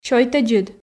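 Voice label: "сёйтӧдзыд", Komi, female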